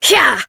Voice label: Horse Sprint Adult; Duviri